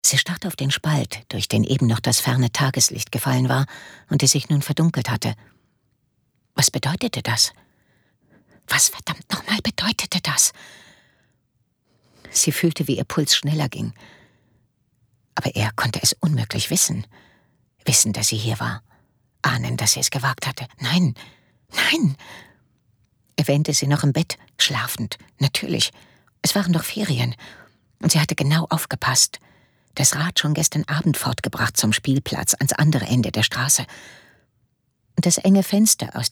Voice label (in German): Hoerbuch, Beutegaenger